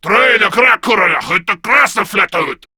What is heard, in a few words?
Attack, Male; Warframe; Grineer Male B; Battle Barks